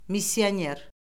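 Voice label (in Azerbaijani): "missioner", Azerbaijani; Orfoqrafiya Lüğəti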